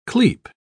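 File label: "clepe", British English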